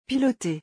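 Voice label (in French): "piloter", French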